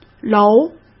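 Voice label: "楼" Mandarin Chinese; chinese flashcards; hsk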